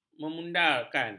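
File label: "memudarkan", Malay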